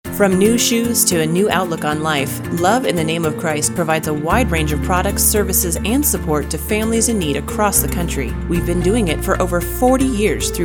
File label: Female